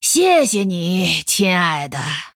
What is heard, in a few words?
Female Night Elf Thanks, zh